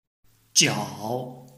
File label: "jiao3", Chinese